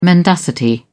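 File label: "mendacity", British English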